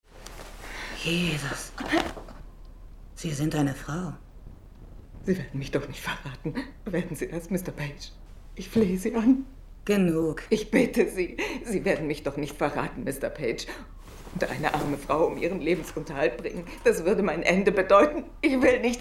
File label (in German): Sprecherin; Rolle von "Hubert" in dem Spielfilm "Albert Nobbs" mit Glenn Close; FILM-SYNCHRO